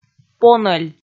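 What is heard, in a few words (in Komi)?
"понӧль", Komi, female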